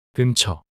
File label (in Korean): "근처" 단어 남자